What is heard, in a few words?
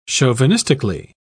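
"chauvinistically", British English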